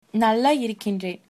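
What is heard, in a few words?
fine, Tamil, female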